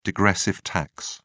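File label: "degressive tax", British English, dictionary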